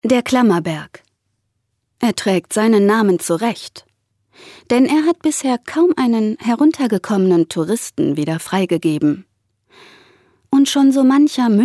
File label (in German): Kommentar Neutral